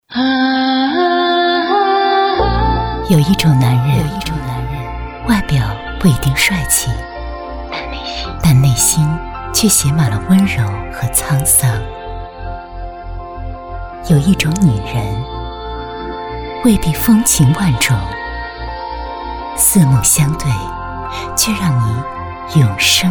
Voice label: 女-电台节目-片花

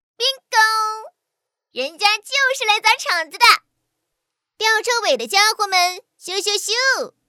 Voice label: 女

